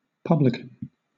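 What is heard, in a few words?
"publican", British English; pronunciations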